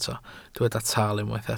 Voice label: "tal", welsh